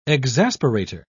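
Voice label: "exasperater", British English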